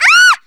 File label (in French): Voix, Sexy Sounds